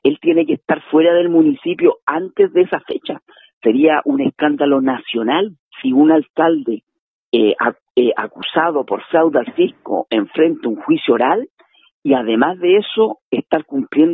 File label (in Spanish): CUÑA